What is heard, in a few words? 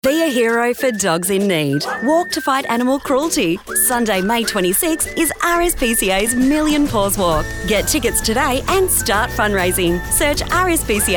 Voice Reel, en-GB; Big Mouth Voices